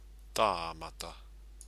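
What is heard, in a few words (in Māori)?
"tamata", Māori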